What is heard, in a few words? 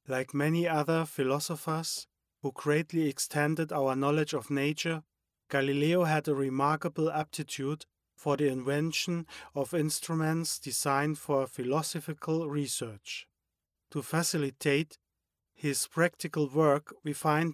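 German, male, voice over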